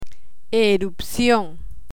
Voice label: "erupcion", Spanish